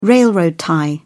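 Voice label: "railroad tie", British English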